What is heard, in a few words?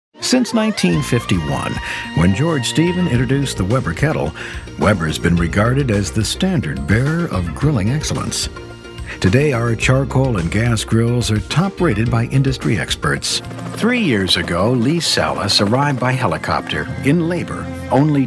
men narration